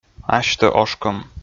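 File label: "асьтӧ ошкӧм", Komi, male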